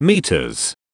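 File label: "meter", English; Taranis-Voicebot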